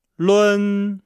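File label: "lun", Chinese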